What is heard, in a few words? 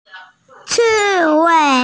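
"ci4wei5", female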